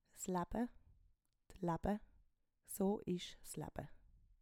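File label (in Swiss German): "s Laebe", Swiss German; Wortschatz Kapitel 1; Kapitel 1 Hauptwortschatz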